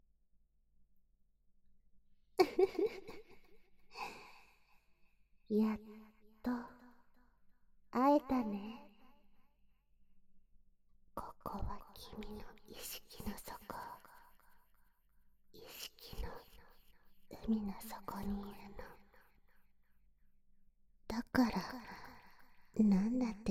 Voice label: saimin, ASMR